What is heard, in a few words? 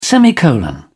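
"semicolon", English, British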